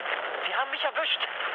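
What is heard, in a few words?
German aircraft destroyed mood med, speech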